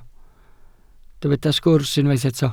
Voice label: "sgwrs" Welsh

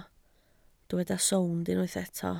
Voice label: "sownd", Welsh

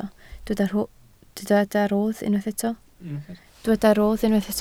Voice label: "rodd", Welsh

